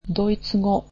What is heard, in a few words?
"German", japanese